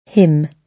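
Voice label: "him", British English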